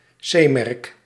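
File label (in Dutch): "C-merk", Dutch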